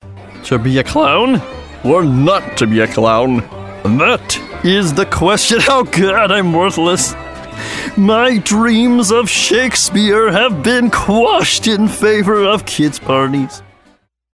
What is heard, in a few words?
Comedy Clown